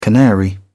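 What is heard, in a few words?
"canary", British English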